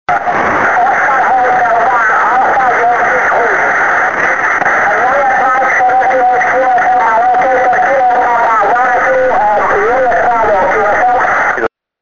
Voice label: 2m es ssb